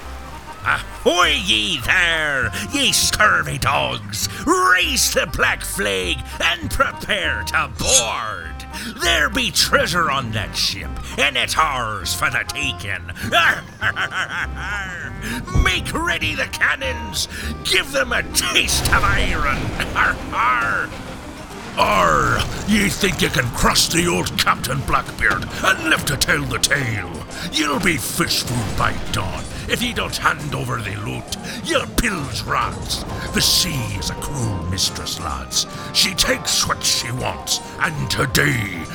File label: Pirate Voices